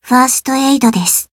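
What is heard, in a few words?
Voice heal Japanese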